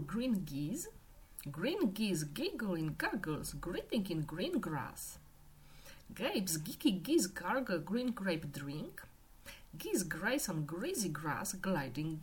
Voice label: tongue twister with g

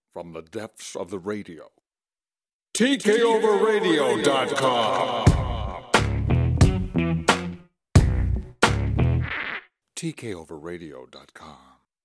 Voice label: commercial; tkoveradio

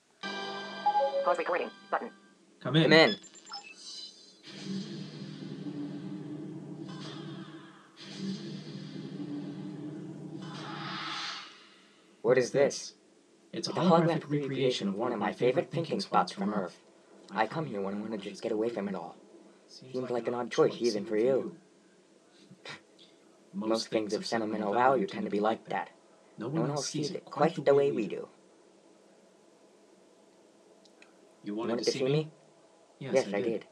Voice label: voiceover

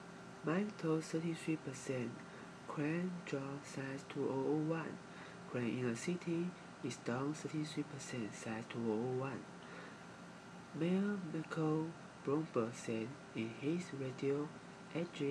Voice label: speaking news

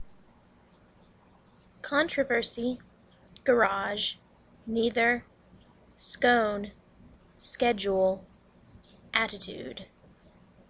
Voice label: austin tx usa female

